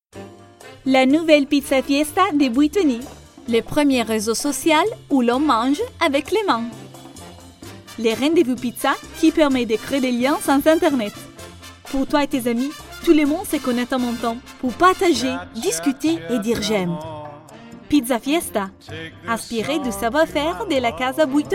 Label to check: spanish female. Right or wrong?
Wrong